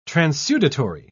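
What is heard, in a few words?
"transudatory", British English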